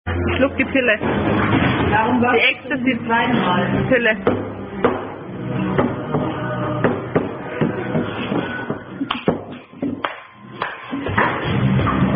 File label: German Women Playing Are You A Cardinal Drinking Game; German Women Playing Are You A Cardinal Drinking Game 4